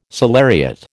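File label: "salariat", American English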